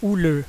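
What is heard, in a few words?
"wavy", French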